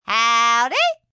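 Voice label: "Howdy" female; Greetings; VoiceBox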